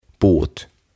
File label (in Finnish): "Puut" Trees